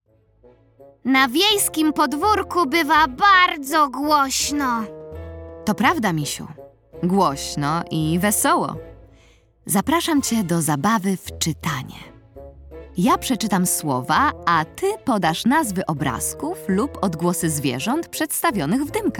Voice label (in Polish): narracja; czasopismo mis; lato; wiejska orkiestra rozmowa